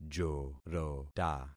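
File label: "jorota", concatenated syllables; Affixing experiment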